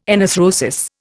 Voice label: "enarthrosis", Indian